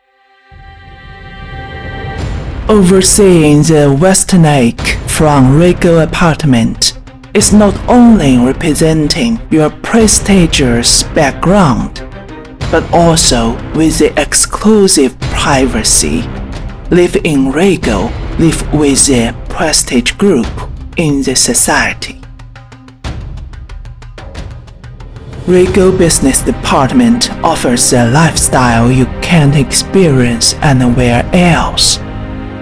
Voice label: 房产宣传片配音

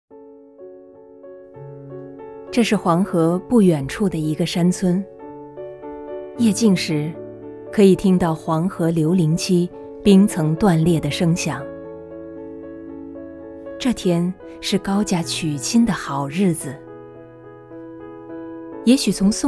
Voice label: 纪录片; 原色

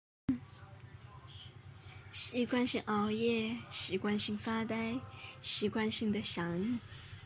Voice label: 御姐音

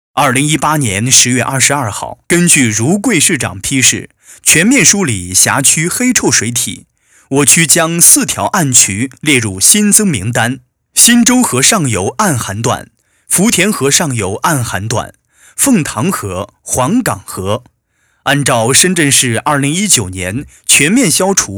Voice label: 新闻专题配音; 深圳市领导批示

